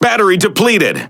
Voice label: En Speech Alarms Sub Battery End; World Of Warships